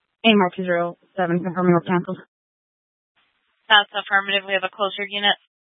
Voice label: Fire Departments Mesa Simulcast; TOPAZ